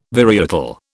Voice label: "varietal", British